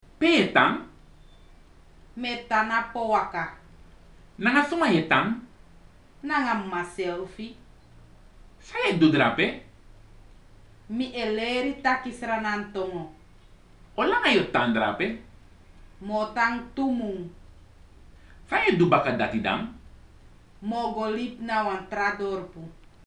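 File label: dialogue, Sranan; PeaceCorps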